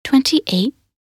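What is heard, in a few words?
voc en min 28, English; minute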